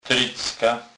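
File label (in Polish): "trycka", kurpie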